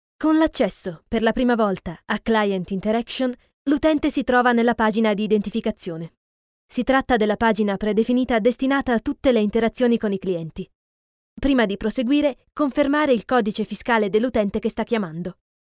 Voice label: ITA E-LEARNING

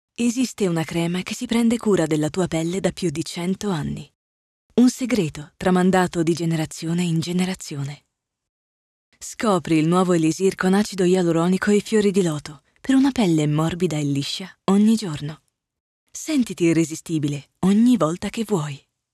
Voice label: ITA Advertise

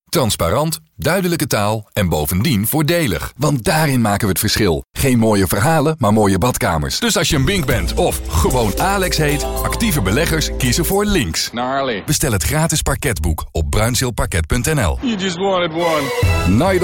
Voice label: stemdemo Commercials

